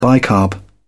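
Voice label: "bicarb", British English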